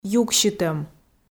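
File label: "юксьытӧм", Komi, female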